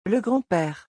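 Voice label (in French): "Le grand pere", French; vocabulaire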